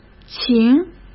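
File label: "晴", Mandarin Chinese; hsk; chinese flashcards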